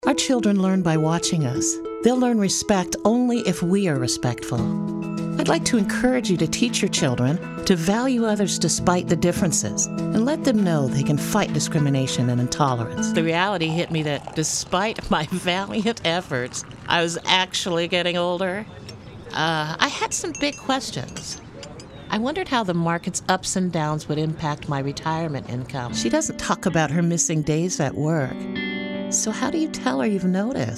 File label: commercials